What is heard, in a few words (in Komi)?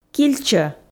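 "кильчӧ", Komi, female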